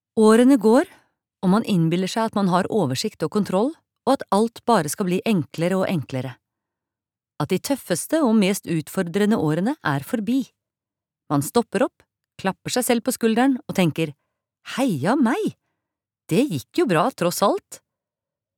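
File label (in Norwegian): Norwegian lydbok, female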